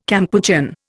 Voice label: "Kampuchean", Indian